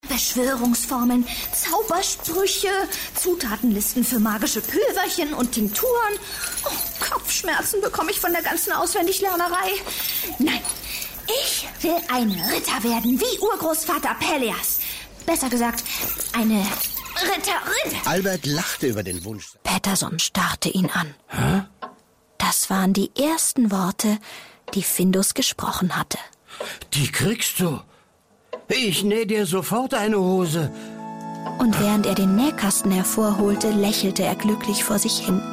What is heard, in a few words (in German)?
hoerspiel mix; lindgren